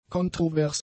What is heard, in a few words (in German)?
"kontrovers", German; adjectives